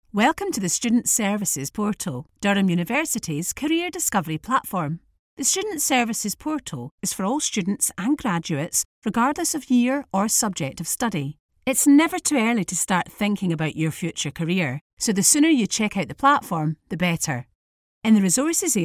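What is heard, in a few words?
English UK, e-learning; GoLocalise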